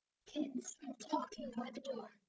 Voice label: calm fearful generated; GAN for speech spectrogram

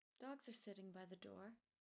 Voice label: calm; GAN for speech spectrogram

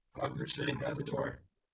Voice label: calm happy generated; GAN for speech spectrogram

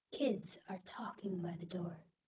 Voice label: calm disgust generated, speech; GAN for speech spectrogram

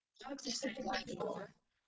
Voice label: calm Fearful generated; GAN for speech spectrogram

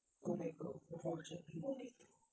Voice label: calm kan Fearful generated; GAN for speech spectrogram

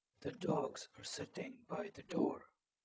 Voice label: calm eng Anger generated; GAN for speech spectrogram

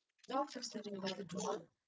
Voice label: calm Anger generated; GAN for speech spectrogram

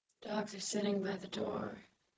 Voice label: calm sad generated, speech; GAN for speech spectrogram

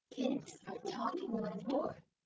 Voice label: calm surprised generated, speech; GAN for speech spectrogram

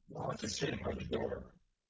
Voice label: calm disgust generated, speech; GAN for speech spectrogram

